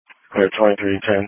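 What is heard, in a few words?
Police Patrol (Dispatch)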